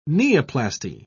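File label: "neoplasty", British English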